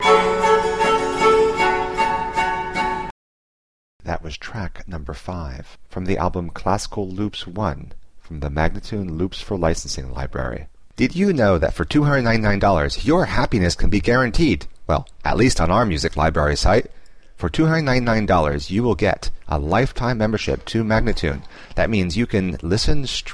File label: american baroque spoken; Loops For Licensing; Classical Loops 1; MagnaLoops